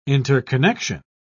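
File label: "interconnection", English, British English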